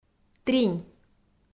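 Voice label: "тринь", Komi, female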